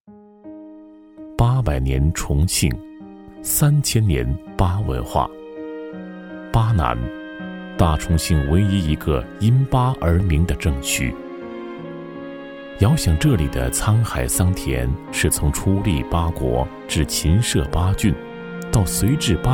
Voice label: 男 纪录片; 纪录史前巴人

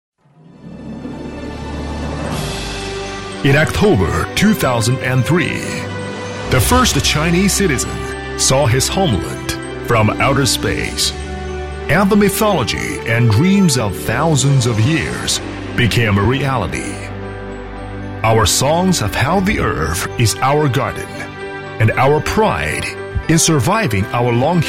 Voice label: 英男 专题 史诗大气

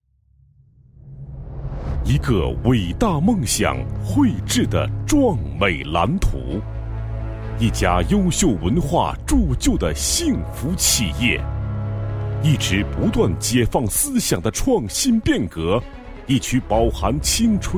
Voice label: 男 专题 宣传 企业大气, 国语男声配音; 和缘

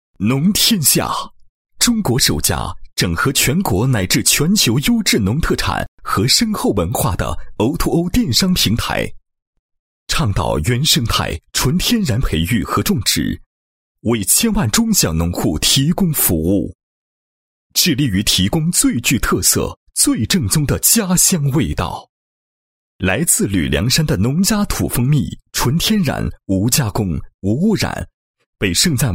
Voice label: 男 宣传广告 电商平台; 农天下

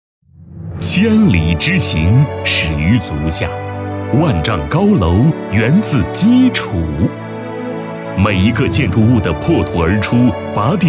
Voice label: 男 工程 宣传片配音; 华中岩土

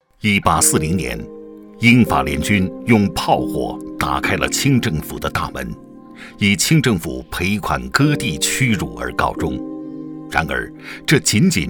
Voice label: 男,纪录片,磁性; 红色之旅

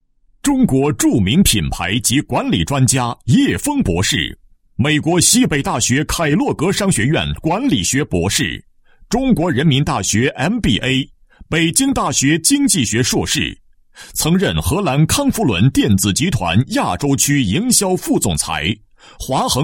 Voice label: 男 专题 宣传片

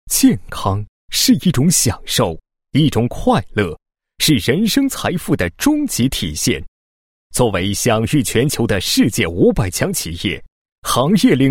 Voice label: 男 保险题材, 国语男声配音 磁性; 磁性专题; 走进新华尊享健康

